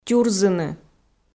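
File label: "тюрзыны", Komi, female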